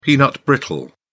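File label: "peanut brittle", British English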